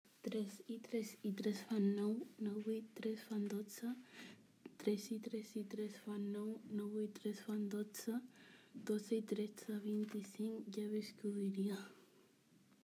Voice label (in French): catalan